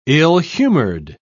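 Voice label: "ill-humored", English, British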